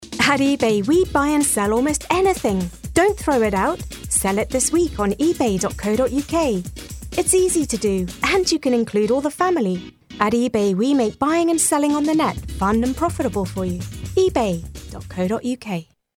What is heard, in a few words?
selling ad